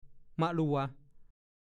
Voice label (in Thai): "มะลัว", ภาษาโคราช; บริเวณน้ำซับ บางทีก็พูดว่า มะลัง